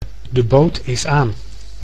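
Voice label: "de boot is aan", Dutch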